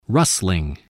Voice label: "rustling", spelling